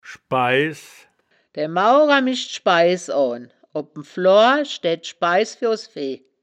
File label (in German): "schbaiss", wittlicher woerterbuch; das wittlicher woerterbuch; woerterbuch vertonung